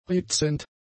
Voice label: "rezent", German; adjectives

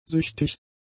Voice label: "süchtig", German; adjectives

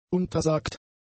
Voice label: "untersagt", German; adjectives

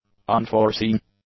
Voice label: "unforeseen", english; adjectives

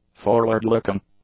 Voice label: "forward-looking", English; adjectives

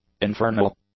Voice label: "infernal", English; adjectives

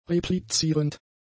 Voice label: "replizierend", German; adjectives

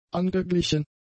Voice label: "angeglichen", German; adjectives

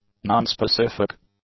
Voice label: "nonspecific", english; adjectives

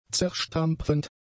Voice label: "zerstampfend", German; adjectives

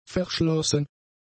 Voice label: "verschlossen", German; adjectives

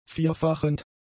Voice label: "vierfachend", German; adjectives

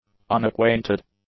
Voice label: "unacquainted", English; adjectives

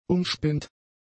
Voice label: "umspinnt", German; adjectives

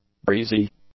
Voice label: "breezy", English; adjectives

